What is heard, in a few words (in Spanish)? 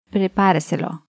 acento lexical prepareselo cuba; spansk; fonetica